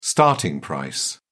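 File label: "starting price", British English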